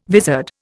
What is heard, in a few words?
"vizard", Indian